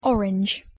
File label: "orange", English